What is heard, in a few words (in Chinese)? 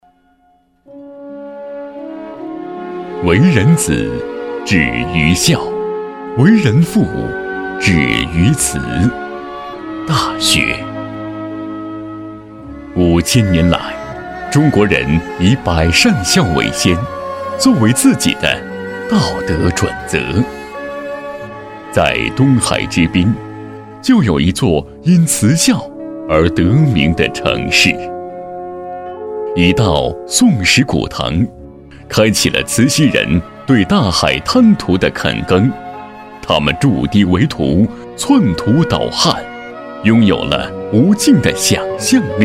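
国语 男声 专题片 沉稳讲述 大气浑厚磁性 沉稳; 中国影像方志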